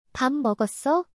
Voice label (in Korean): "밥 먹었어？", Korean; パッ モゴッソ？